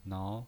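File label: "nao", pinyin voice, Mandarin Chinese, second tone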